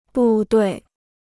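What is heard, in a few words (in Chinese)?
"部队" Chinese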